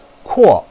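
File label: "kuo4", Mandarin Chinese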